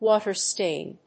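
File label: "water stain", English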